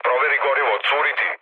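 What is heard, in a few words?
Serbian tank damaged mood low; War Thunder; speech srb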